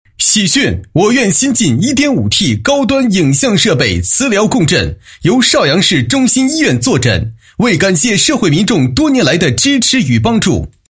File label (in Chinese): 男 喜讯宣传; 医院新进磁共振设备喜讯